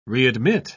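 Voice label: "readmit", British English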